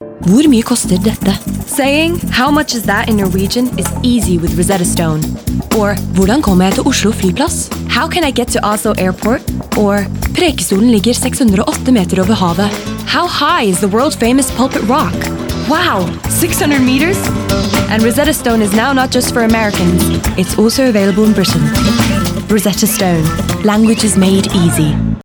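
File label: Norwegian and English, female, advert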